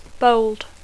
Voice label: "bold", English; negreta; adjectiu; audaç; atrevit; agosarat; valent